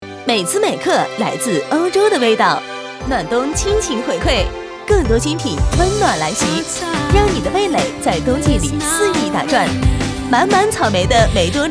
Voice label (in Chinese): 广告; 美滋每客来自欧洲的味道